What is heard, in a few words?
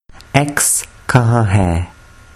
where is, urdu